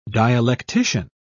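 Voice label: "dialectician", British English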